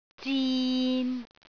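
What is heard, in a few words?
"zin6", Cantonese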